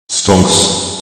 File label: Stonks Echo